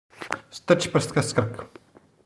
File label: czech fast; phon lab